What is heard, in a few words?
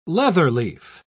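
"leatherleaf", English, British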